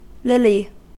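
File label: "lily", American English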